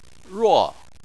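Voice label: "ruo" tone 4, Chinese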